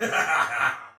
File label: Pre Death Soldier Male; Sinecure